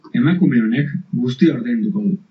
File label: pay, basque